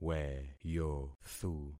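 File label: "weyothu", concatenated syllables; Affixing experiment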